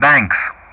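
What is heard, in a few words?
"Banks", English; Ka-50; Callsign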